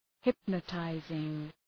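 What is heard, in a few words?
"hypnotizing", English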